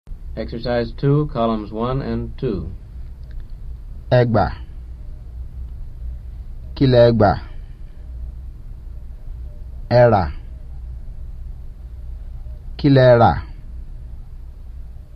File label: Yoruba; FSI; Basic